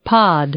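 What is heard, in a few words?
"pod", English, female; noun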